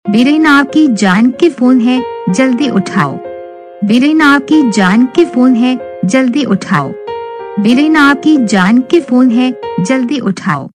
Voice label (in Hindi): aapki jaan ki phone hai jaldi uthao saying, Ringtones